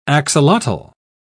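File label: "axolotl", British English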